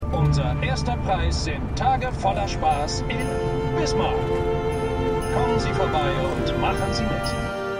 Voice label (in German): Radiosprecher; Fargo